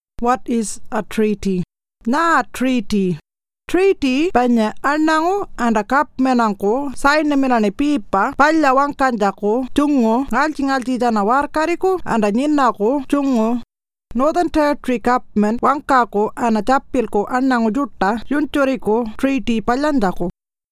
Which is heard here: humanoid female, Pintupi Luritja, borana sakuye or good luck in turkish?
Pintupi Luritja